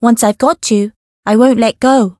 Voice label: Voice variable chant; nagi

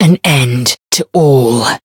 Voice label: variable chant; violet lancer

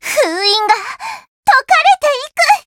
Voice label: Voice growth jp; suzette

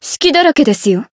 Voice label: finish, jp; felmina

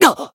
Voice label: Voice damaged jp

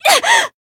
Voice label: damaged jp

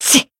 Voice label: Voice damaged, jp; hiina

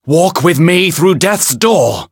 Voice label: variable chant; zeviro

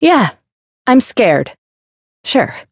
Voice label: Voice encount fear; mayu

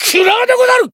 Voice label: finish jp; cyrus

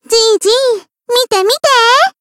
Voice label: growth, jp; lele

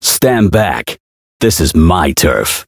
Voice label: Voice variable chant

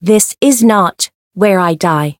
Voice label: Voice chant; variable chant

